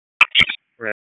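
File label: EMS Dispatch; wake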